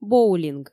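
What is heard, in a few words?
"боулинг", Komi, female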